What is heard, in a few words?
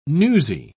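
"newsie", British English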